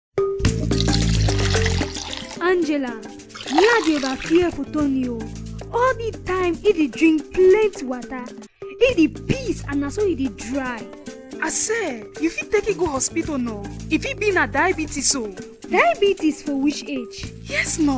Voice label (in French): Spot radio sensibilisation pidjin; sensibilisation sur le diabete de lenfant